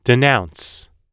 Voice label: "denounce", us